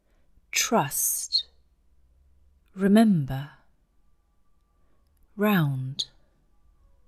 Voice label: Russian, British accent; English Pronunciation Errors made by Russian Speakers